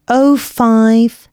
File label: announcements female